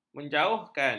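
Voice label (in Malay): "menjauhkan", Malay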